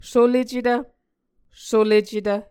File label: "solecetv", Muscogee